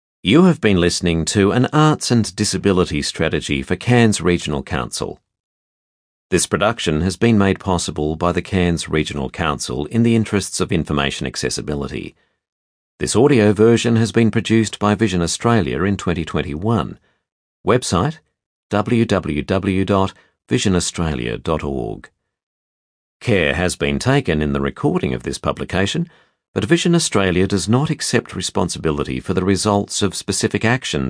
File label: Closing announcement